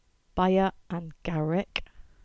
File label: "Mounts Bay" Cornish